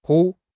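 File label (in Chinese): "铺", Chinese; HSK; HSK6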